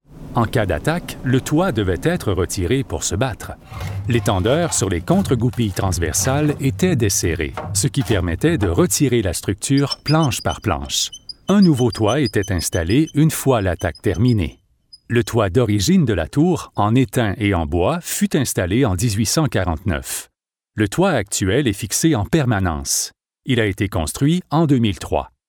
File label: french canadian audio guides